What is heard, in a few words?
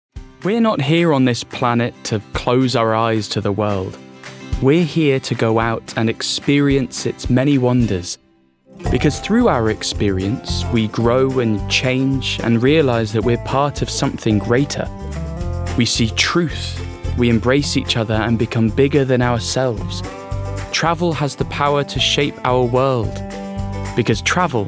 Commercial Reel